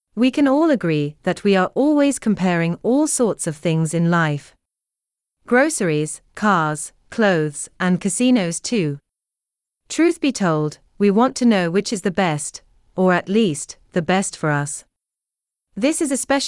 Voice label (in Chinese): 外籍英语女 心灵鸡汤